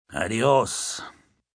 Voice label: "Adios", Goodbyes, male, foreign, Spanish